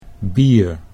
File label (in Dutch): "bier", Dutch